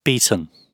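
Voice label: "beaten", British English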